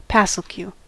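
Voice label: "PASSLQ", US English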